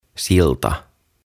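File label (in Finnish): "Silta", Finnish; OTAVA KONSERNI; aantamisohjeet suomeksi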